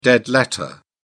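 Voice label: "dead letter", en, British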